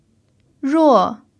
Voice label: "ruo4", Chinese